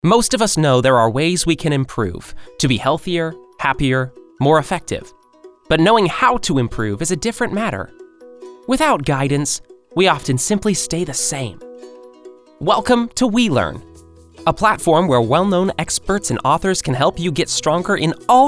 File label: 20s Male Commercial